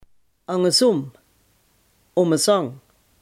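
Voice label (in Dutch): "andersom" Limburgish dialect word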